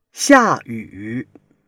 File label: "xia4 yu3", Chinese